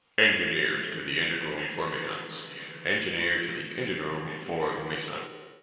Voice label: veteran pa